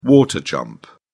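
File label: "water jump", British English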